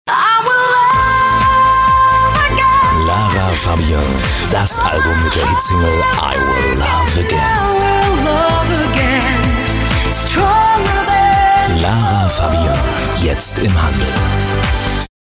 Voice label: AVERTISING